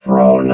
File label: "thrown", robot